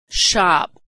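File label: "shop", American English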